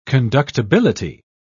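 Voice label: "conductibility", British English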